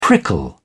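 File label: "prickle", British English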